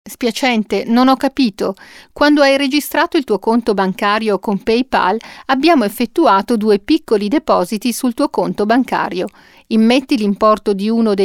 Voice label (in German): ansage bank